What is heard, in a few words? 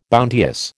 "bounteous", American